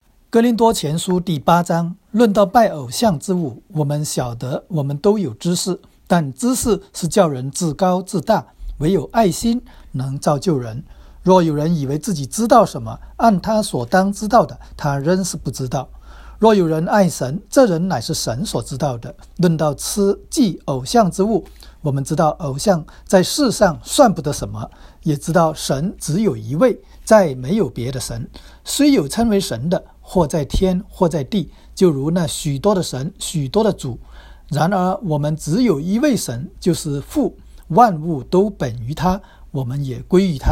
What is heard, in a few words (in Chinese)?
林前08 经文 国语; NT 新約; Mandarin